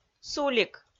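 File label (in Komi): "сулик", Komi, female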